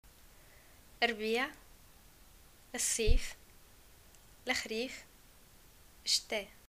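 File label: seasons, Tunisian arabic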